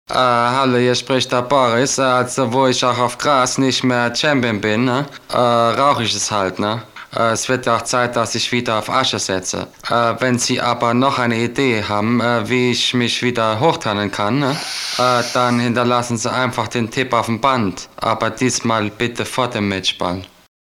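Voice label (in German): Ansagen für Anrufbeantworter; boris becker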